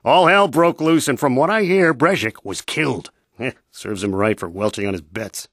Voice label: male